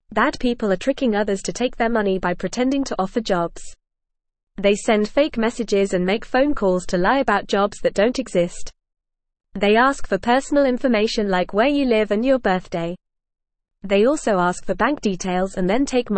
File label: Beginner FAST Reading, English; English Newsroom